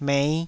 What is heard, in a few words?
"mei5", Taiwanese